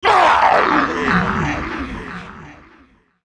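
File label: MONSTER ZOMBIE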